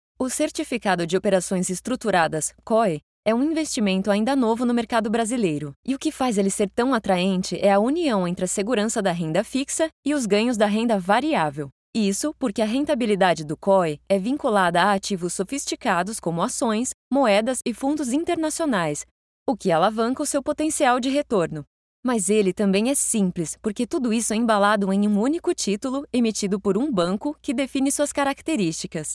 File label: portuguese brazilian corporate